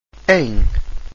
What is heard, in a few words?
em open, Portuguese vowels; like ‘an’ in ‘angel’